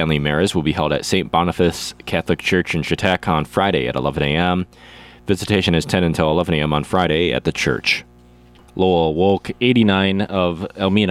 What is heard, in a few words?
Funeral Announcements